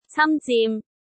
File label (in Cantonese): "cam1-zim3", cantonese